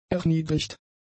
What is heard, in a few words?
"erniedrigt", German; adjectives